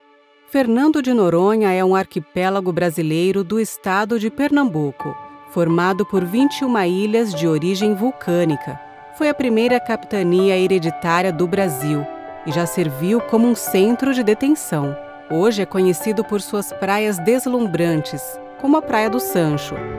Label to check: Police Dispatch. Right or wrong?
Wrong